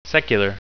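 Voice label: "secular", English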